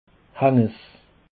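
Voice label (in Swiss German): "haendess", Alsatian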